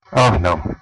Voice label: meet, west flemish